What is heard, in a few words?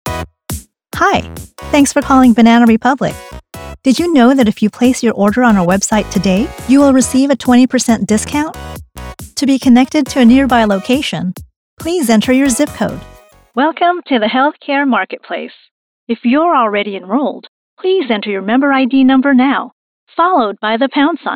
Telephony